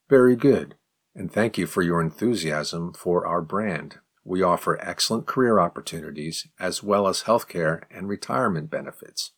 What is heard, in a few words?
advanced question slow